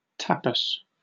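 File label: "tapas", British English; pronunciation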